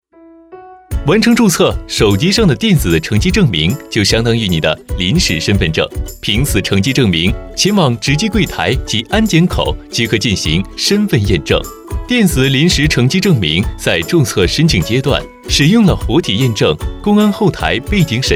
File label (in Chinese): 男 深圳机场; 飞碟说